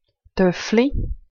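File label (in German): "toeffli", Swiss German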